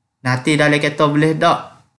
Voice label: Kelantan-Pattani Malay